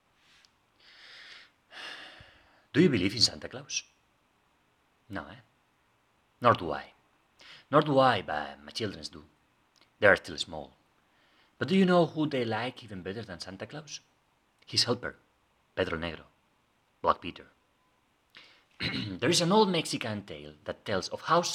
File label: English with regular accent